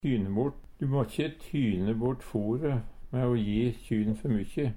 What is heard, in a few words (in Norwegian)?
"tyne bort", Numedalsmål; Du må`kje tyne bort fore mæ o je kjy`n før mykkjy.